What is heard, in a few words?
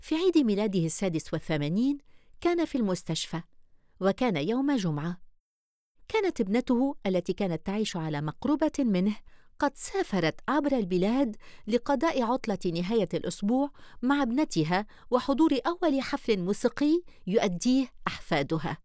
Documentary